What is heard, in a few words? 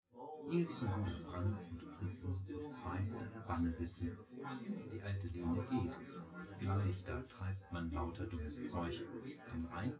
male synth conv